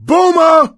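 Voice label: warn boomer, voice, male; Left 4 Dead 2; coach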